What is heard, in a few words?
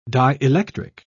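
"dielectric", British English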